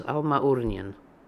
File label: "Almaoornian", Beelzebub pronounciations; Fourth Way